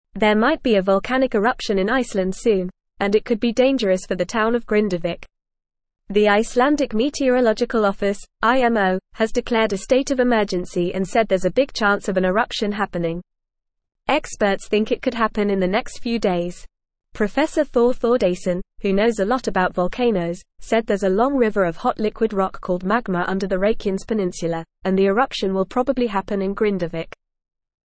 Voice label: English Upper Intermediate FAST Reading; Iceland Declares State of Emergency as Volcano Risk; English Newsroom